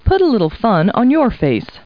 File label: people, kid